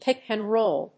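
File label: "pick and roll", English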